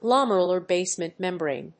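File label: "glomerular basement membrane", English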